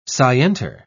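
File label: "scienter", British English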